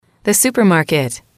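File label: "the super market", English, female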